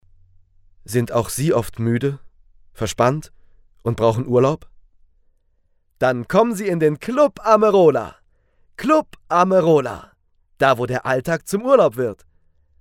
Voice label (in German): werbung